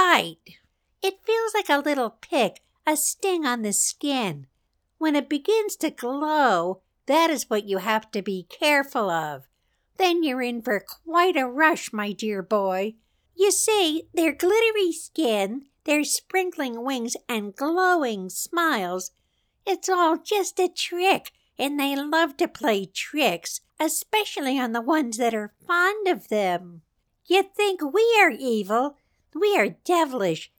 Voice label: Weird lady and Fairies